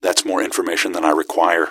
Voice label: answer, gasmask; scmod